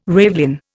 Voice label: "ravelin", Indian